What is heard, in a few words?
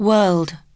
"world", pronunciation